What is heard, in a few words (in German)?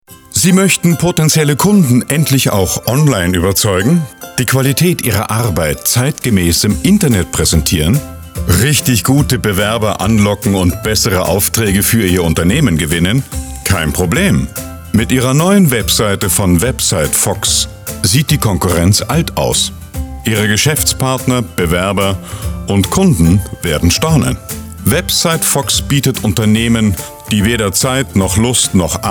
Imagefilm